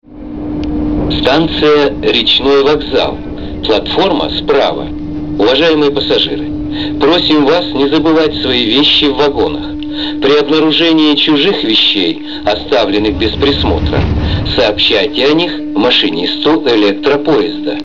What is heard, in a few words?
male